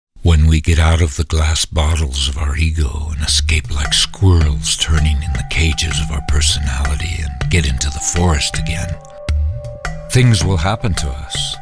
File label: Soft AD